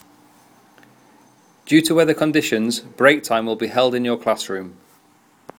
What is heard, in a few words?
Weather conditions male voice